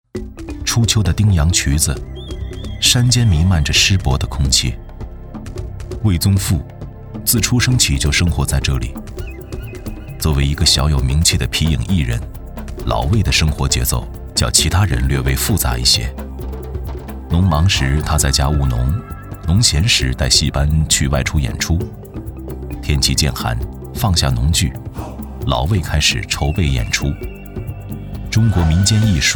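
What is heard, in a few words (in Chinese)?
男国大音-非遗类; 皮影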